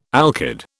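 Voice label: "alkyd", British English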